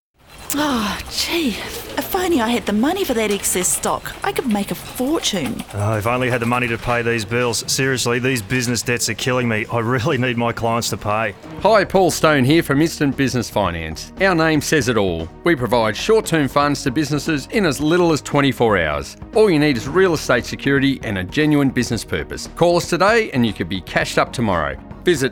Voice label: advert; instant business finance